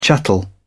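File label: "chattel", British English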